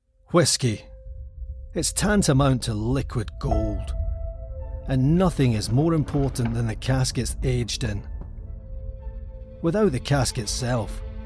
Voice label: ADVERT; Scottish; Siemens Whisky